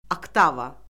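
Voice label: "oktava", Azerbaijani; Orfoqrafiya Lüğəti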